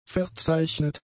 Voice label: "verzeichnet", German; adjectives

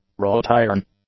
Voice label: "wrought-iron", english; adjectives

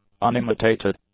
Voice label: "unimitated", english; adjectives

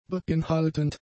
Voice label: "beinhaltend", German; adjectives

